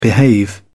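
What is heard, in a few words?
"behave", en, uk British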